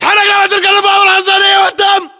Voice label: order cover concrete pipe, battle chatter, english